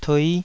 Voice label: "tui5", taiwanese